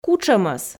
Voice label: "кутшӧмӧс", Komi

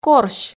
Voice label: "корсь", Komi

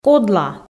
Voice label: "кодла", Komi

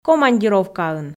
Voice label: "командировкаын", Komi

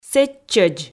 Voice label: "сэтчӧдз", Komi

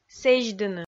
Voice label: "сэзьдыны", Komi

